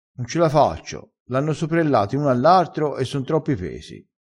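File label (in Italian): "soprella", dialettale, crespinese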